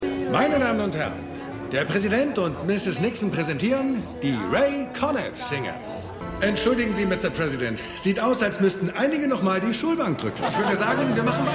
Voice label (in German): Moderator; Gaslit